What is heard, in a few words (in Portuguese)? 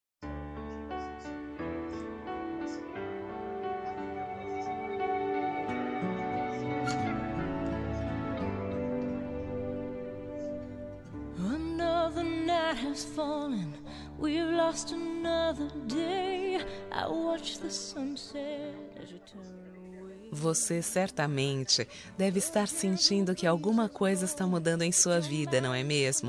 gestante fem, Voz Feminina; Telemensagem para Gestante